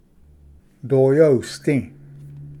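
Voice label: "doya usdi", Cherokee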